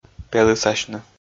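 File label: "пелысасьны", Komi, male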